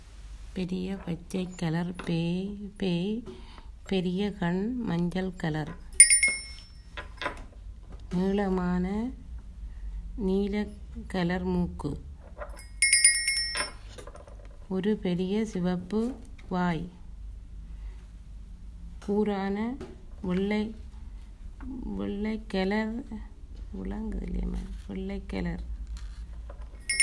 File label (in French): grand monstre vert en tamoul